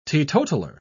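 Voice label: "teetotaller", British English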